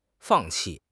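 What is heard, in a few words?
"fang4 qi4", Chinese; 汉语口语新时代-初级下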